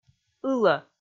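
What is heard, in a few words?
"ылӧ", Komi, female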